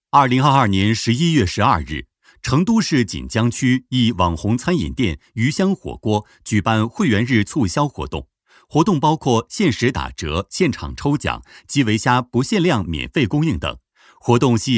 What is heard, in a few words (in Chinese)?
男 新闻风格; 透亮故事感 自然诉说